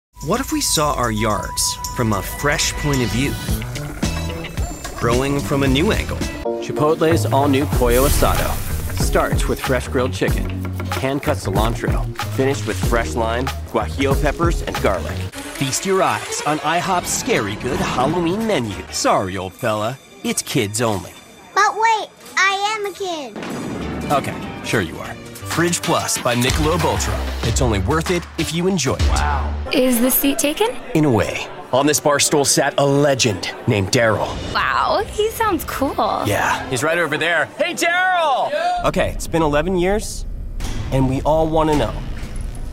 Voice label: commercial